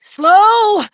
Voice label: Horse Stop Adult; Duviri

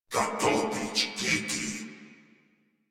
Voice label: Patrol Crewmen, Battle Barks; Dialog; Warframe; Corpus Crewmen; Crewman C

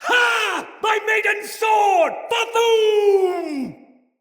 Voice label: turned tides, soldier male; Dendrit

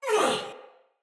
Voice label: Death Finisher, Soldier Female; Battle; Barks; Dialog; Sinecure Soldier Female A

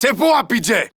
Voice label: Reload Male, Battle Barks; en; Modular Corpus

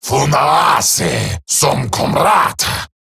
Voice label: Cover Me Eximus Grineer Male; Battle

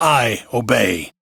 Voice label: Crew Accept, Male, en; Railjack Crew; Arbiters Hexis

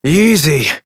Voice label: Horse Calm Adult; Duviri

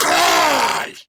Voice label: Attack Grineer Ghoul Sargeant, en; Grineer Ghoul Drill Sargeant; Battle Barks

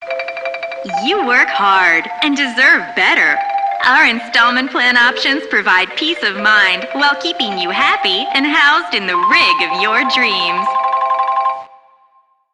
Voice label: PA System, en; Warframe; Solaris Venus

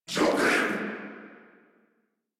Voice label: Player Spotted, Battle Barks

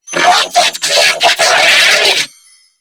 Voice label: Attack Grineer Female; New War; Narmer

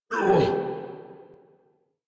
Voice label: Pain, en

